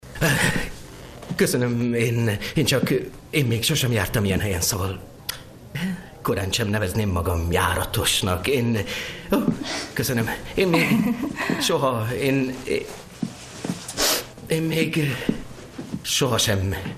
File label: dubbing; the knick; LOFT VOICES